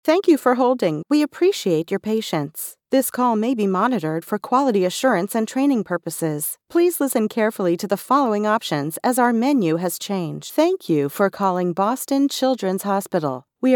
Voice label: Phone Messaging IVR Telephony